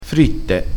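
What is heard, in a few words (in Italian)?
"fritte", vocabolario dialettale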